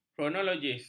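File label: "kronologis", Malay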